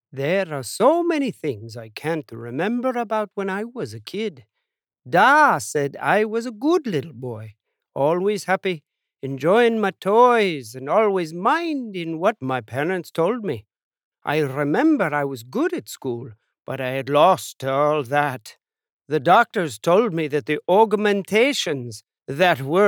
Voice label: Accents Irish male